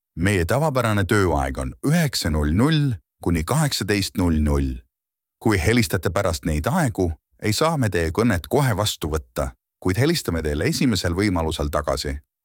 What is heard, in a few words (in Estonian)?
Telefonivastus, meeshaaled